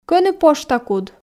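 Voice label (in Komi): Komi, кӧні пошта куд, female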